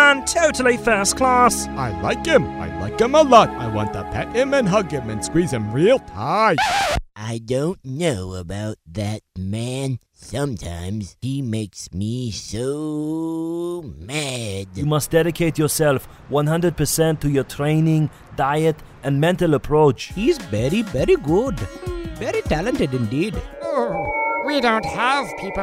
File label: Character